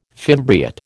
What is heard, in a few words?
"fimbriate", American